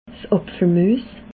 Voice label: "apfel muess", alsace

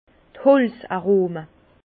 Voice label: "holz arome", Bas Rhin; vin; arômes de bois

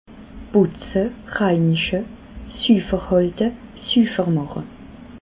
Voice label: "butze", alsace

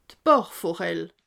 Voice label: "bachforell", alsace

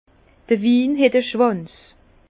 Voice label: "schwanz", Bas Rhin; vin; vin gâté épais

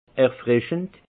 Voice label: "erfrischend", alsace; vin; rafraichissant

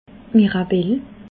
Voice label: "mirabell", alsace

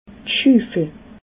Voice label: "schufel", alsace

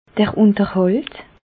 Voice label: "unterhalt", alsace

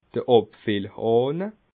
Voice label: "abfillhahne", alsace

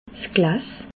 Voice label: "glas", alsace

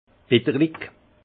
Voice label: "betterlacht", alsace; vin; astringent

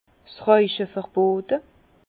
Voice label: "rauche", Bas Rhin; interdit de fumer; vin

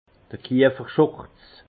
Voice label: "kieferschurz", alsace; vin; tablier de tonnelier

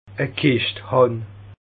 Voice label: "kischt", Bas Rhin; avoir une cuite

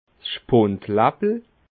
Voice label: "spundlappel", alsace

